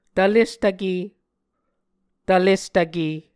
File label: "tvlestvke", muscogee